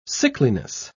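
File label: "sickliness", British English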